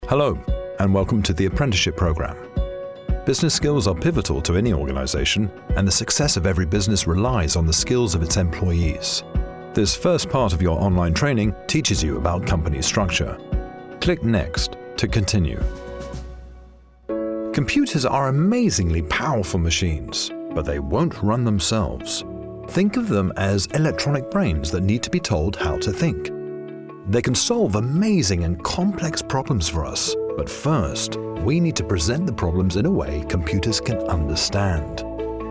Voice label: e-learning